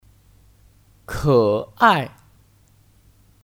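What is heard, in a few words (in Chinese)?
"可爱" Chinese; Kě ài; Kata sifat; Lucu/ imut; 他的孩子很可爱 Tā de háizi hěn kě'ài (Anak dia sangat lucu)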